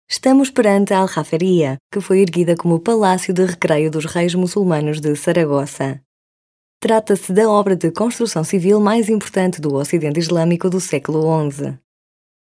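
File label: Portuguese, female, narration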